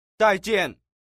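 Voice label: "zaijian", Chinese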